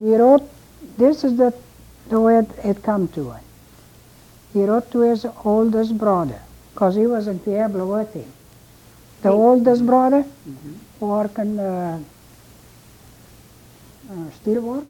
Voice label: Oral history with 82 year old female Fruita Colorado, american english dialect